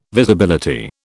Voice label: "Visibility", British